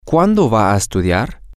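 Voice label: Spanish, when are you going to study, male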